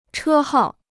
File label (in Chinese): "车号", Chinese